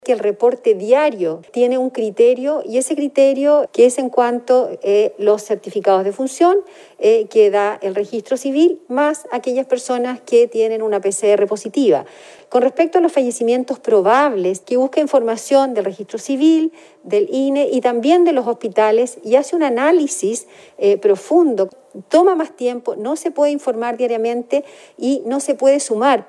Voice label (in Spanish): cuña